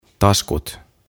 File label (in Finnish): "Taskut", suomeksi; OTAVA KONSERNI; aantamisohjeet suomeksi